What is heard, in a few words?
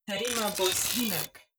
robot human female greetings